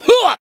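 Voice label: attack, Japanese; cyrus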